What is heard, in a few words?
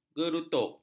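"gerutup", Malay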